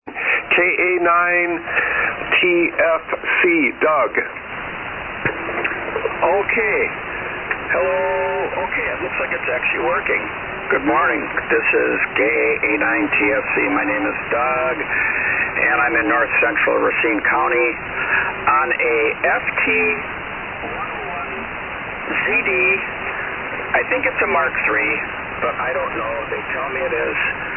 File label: Yaesu FT-101ZD; Caledonia, Wi.